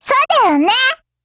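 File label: Japanese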